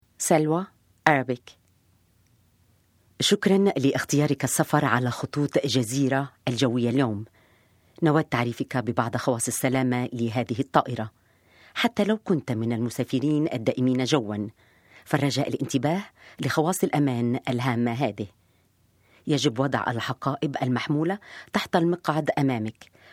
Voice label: Arab